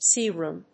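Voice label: "sea room", English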